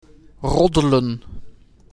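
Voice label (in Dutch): "Roddelen", Dutch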